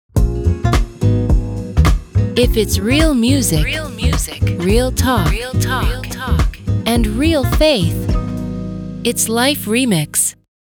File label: sweeper